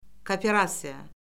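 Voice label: "kooperasiya", Azerbaijani; Orfoqrafiya Lüğəti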